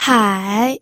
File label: "hai3", pinyin audio